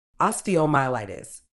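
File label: "osteomyelitis", medical English, British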